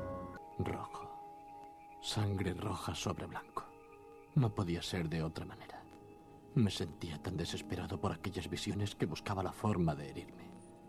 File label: spanish, hiss removal on a good quality VHS tape